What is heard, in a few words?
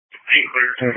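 Police Patrol Dispatch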